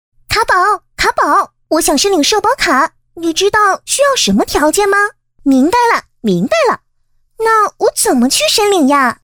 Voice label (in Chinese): 卡通人物-女